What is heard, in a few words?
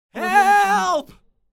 male desperate call for help